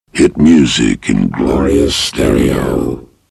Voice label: hit music glorious stereo